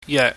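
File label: "yeuk", Cantonese, mid level tone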